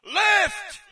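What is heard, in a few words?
British left; player; source engine; dod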